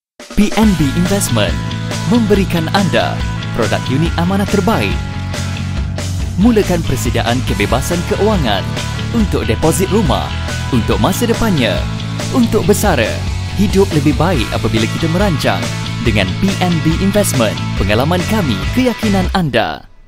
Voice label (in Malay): Iklan; PMB Investment